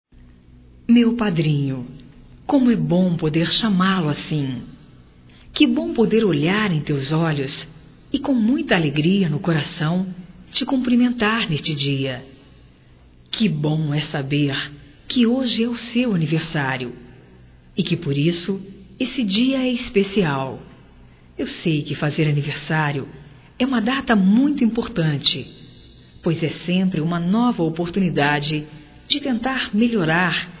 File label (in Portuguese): Telemensagens Aniversarios fem; Telemensagens 2017